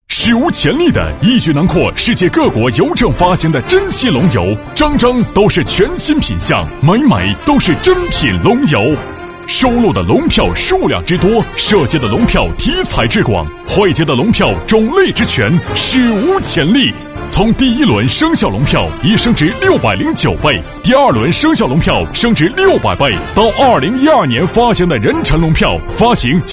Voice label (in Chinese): 男 广告 电购; 龙票纪念钞